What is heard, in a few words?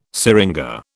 "syringa", British